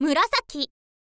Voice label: girl